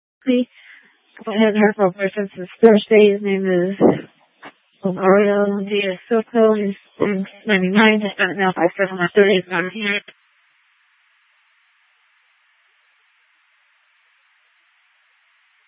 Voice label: Police Dispatch